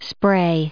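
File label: "spray", English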